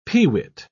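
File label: "pewit", English, British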